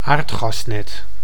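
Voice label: "aardgasnet", Dutch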